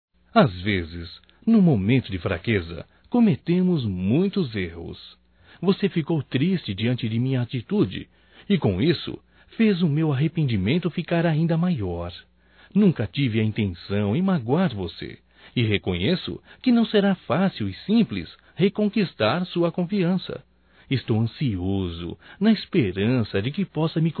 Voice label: DESCULPAS NEUTRO (M); masc; Telemensagens 2017

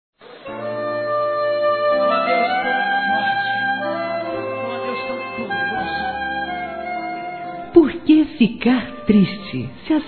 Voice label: Evangélico Aniversário Otimismo Feminino; Aniversários

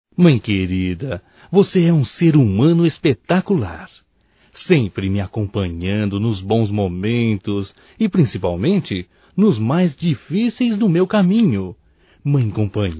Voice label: AGRADECIMENTO PARA MAE, Só Voz, masc; Telemensagens; AGRADECIMENTOS

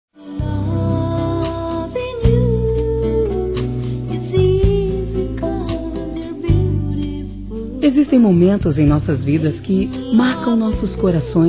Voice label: Aniversário Neta Feminino; Aniversários; Aniv Familiar

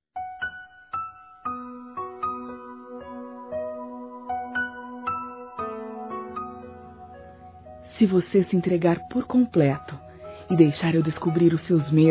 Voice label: MEU CORPO E SEU NINHO (F), Sensuais, fem; Telemensagens

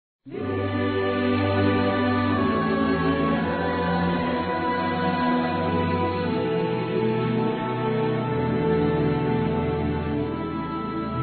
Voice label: FOI BATIZADO, Gospel, masc

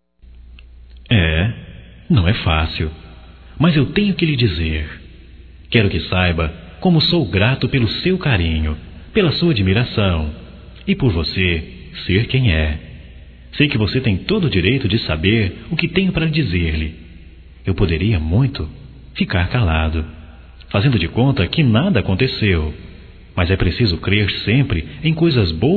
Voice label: DANDO O FORA, Românticas, masc

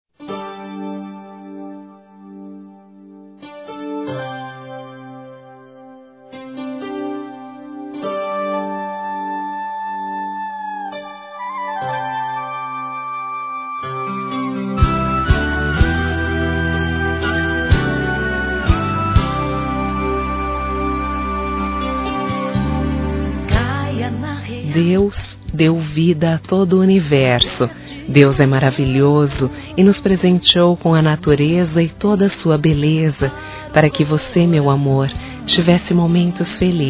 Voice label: Mensagem Romântica Feminino, Gospel; Telemensagens; Telemensagens 2017

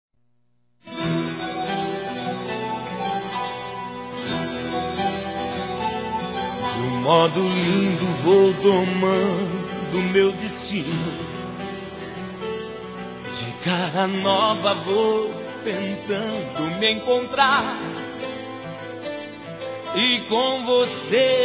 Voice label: Aniversário Sertanejo Romantico Suave Masculino

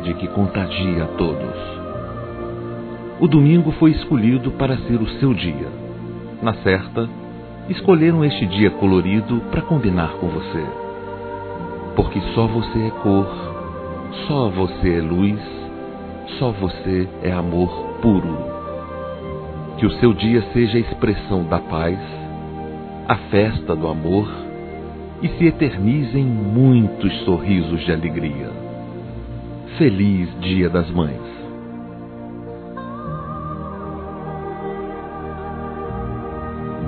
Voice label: SOGRA, SEU CARINHO DE MÃE M, masc; Telemensagens; Telemensagens 2017

